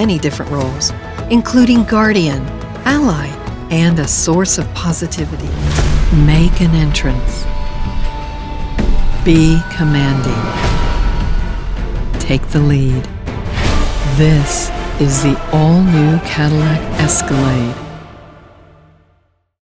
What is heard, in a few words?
Commercial, Women